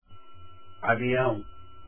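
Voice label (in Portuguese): "aviao", Portuguese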